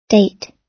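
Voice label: "date", US English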